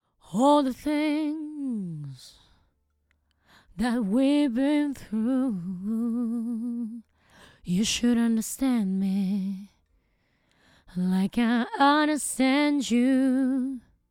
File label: SHURE SM 7b